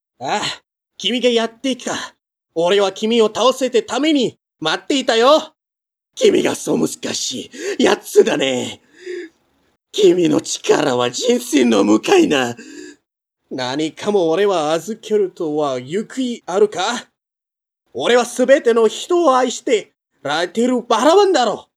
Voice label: JAPANESE Characters